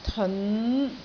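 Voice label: "teon5", Cantonese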